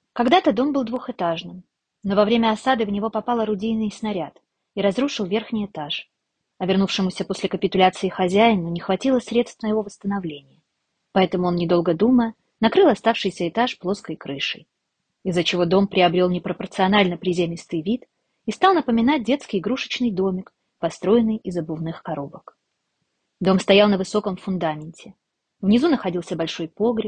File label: russian